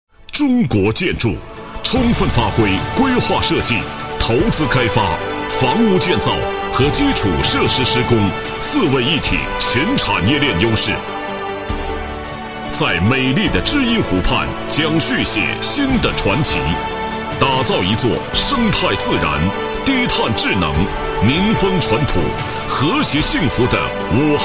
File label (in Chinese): 专题 建筑 磅礴大气, 国语男声配音; 中国建筑集团; 央视大牌